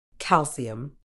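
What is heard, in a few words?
"calcium", British English; ˈkæl.siː.əm; KAL-see-uhm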